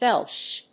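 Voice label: "belch", English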